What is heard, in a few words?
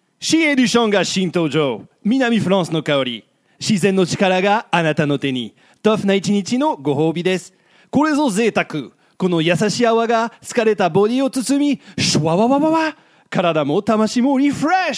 Japanese, narrator; europe